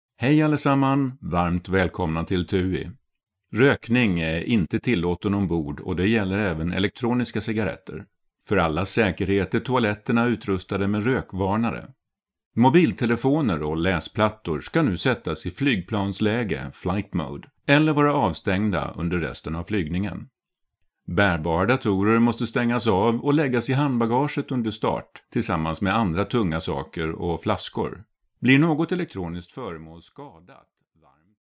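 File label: Swedish male voice over, narrative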